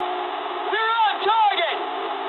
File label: eng near waypoint mood low, speech eng; War Thunder